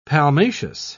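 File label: "palmaceous", British English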